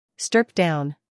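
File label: "humor", British; stripped-down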